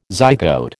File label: "zygote", American